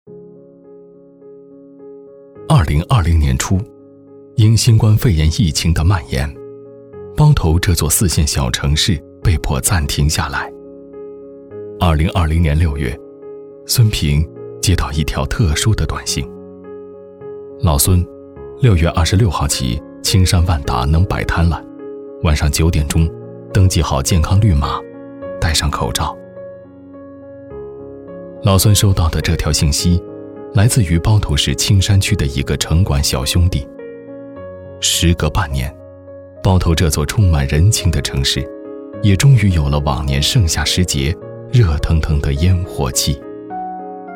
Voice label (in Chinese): 男 纪录片